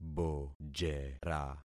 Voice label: "bojera", concat syllables; Affixing experiment